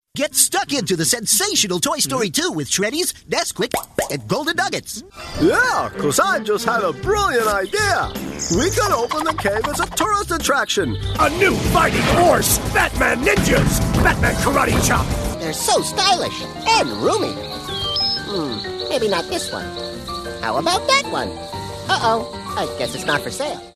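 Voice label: English US Characters; GoLocalise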